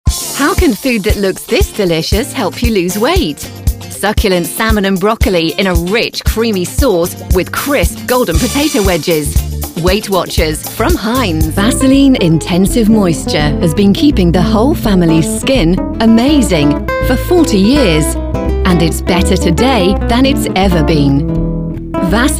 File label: female english commercial compilation